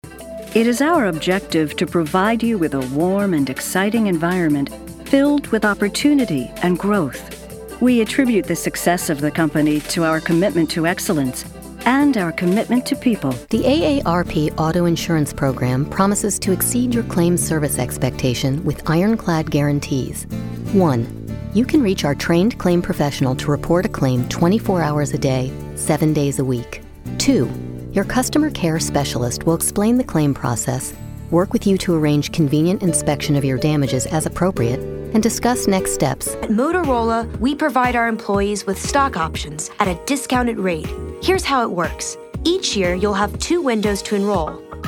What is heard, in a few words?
VO Women Corporate Narration; Get Reel